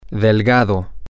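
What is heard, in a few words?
male, spanish; skinny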